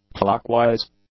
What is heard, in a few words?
"clockwise", English; adjectives